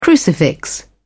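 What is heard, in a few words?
"crucifix", British English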